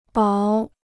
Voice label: "薄", Chinese

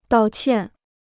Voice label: "道歉", Chinese

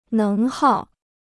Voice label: "能耗", Chinese

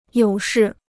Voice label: "有事", Chinese

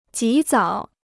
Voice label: "及早", Chinese

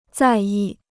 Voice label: "在意", Chinese

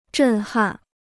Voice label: "震撼", Chinese

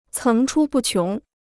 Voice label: "层出不穷", Chinese

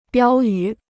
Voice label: "标语", Chinese

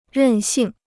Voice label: "任性", Chinese

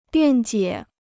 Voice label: "辩解", Chinese

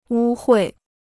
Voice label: "污秽", Chinese

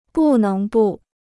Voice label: "不能不", Chinese

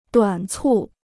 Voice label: "短促", Chinese

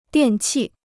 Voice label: "电器", Chinese

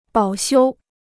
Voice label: "保修", Chinese

